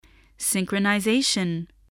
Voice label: "synchronisation", English